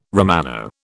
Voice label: "Romano", British